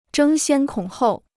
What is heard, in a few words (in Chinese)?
"争先恐后", Chinese